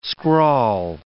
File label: "scrawl", English